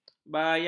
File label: "bayak", ms